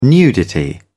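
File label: "nudity", British English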